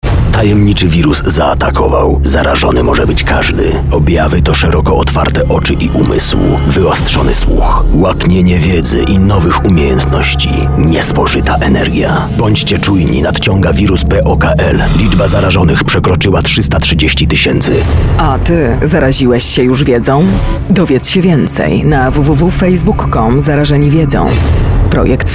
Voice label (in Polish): spot radiowy